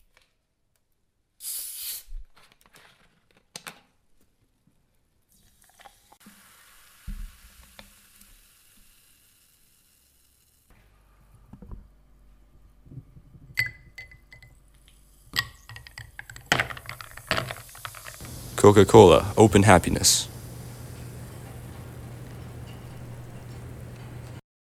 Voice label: radio ad